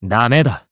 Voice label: Deny, jp; Siegfried; kingsraid